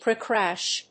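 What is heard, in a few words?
"Precrash", English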